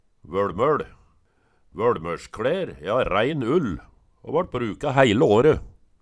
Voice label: "voLmoL", Numedalsmål

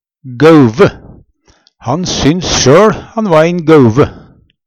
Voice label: gauve, Numedalsmål

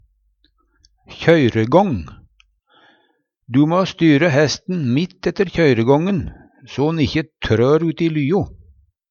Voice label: kjøyregong, Numedalsmål

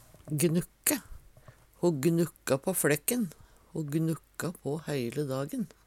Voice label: gnukke, Numedalsmål; Verb

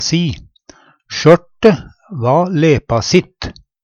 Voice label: "lepasi", Numedalsmål; Personleg utstyr, klede, sko; Adjektiv

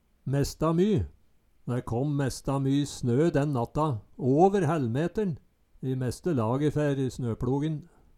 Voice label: mesta my, Numedalsmål

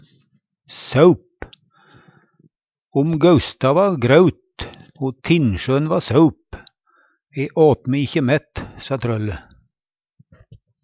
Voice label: "saup", Numedalsmål

